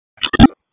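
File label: Raleigh Police Southeast District Dispatch, p25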